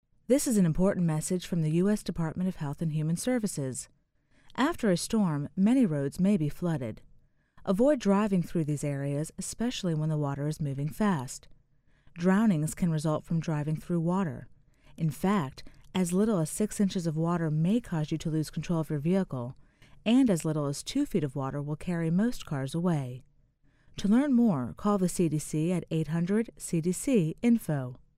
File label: psa disaster water driving female voice